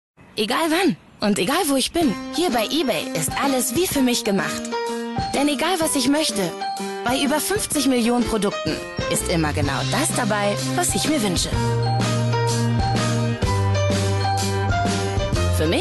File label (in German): tv spot; ebay; mein ein fuer alles